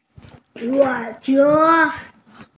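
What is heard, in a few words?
"huo3che1", Mandarin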